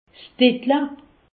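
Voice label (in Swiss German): "diddel", Haut Rhin; medecine; mammelon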